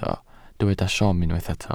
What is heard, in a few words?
"siom", Welsh